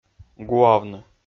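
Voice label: "гуавны", Komi, male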